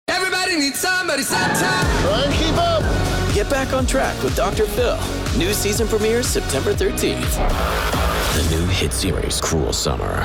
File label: Promo